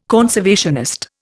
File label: "conservationist", Indian